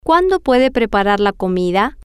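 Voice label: Spanish, female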